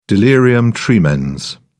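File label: "delirium tremens", British English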